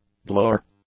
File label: "blower", English; nouns